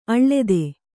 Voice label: "ಅಳೆತ", Kannada

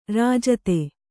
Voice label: "ರಾಜಿತ", Kannada

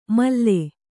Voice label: "ಮಲ್ಲೆ", Kannada

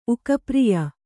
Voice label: "ಉಕಪ್ರಿಯ", Kannada

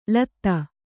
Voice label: "ಲೂತಿ", Kannada; latta; ಲತ್ತ; noun

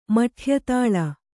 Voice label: "ಮಠ್ಯತಾಳ", kannada

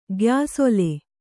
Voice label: "ಗ್ಯಾಸೊಲೆ", Kannada

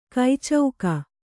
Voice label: "ಕೈಚೌಕ", kannada

